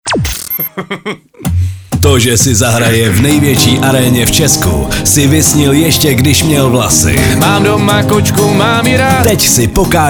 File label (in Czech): promo vikend; evropa2